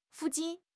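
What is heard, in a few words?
"fu4 jin4", Mandarin Chinese; HSK3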